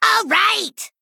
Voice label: Male Gnome Attack